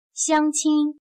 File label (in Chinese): "乡亲", chino